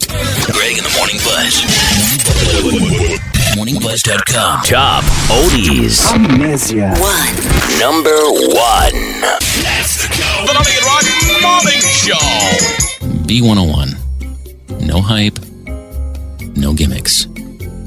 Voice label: Radio Imaging